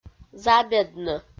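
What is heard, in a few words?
"забеднӧ", Komi, female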